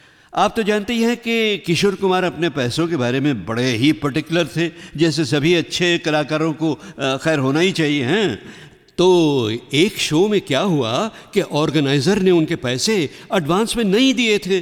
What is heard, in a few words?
commentary